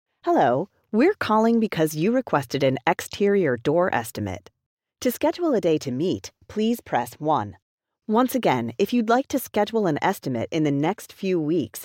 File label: Door IVR